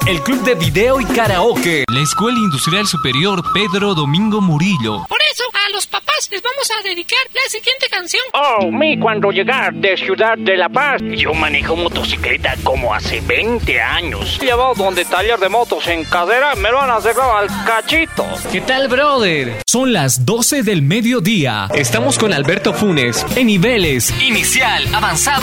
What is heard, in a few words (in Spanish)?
locución